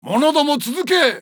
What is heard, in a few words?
japanese King; age2